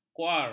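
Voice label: "kuar", Malay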